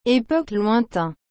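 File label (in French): "époque lointain", French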